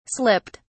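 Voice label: "slipped", British English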